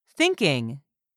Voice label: "THINKING", english; 3000 words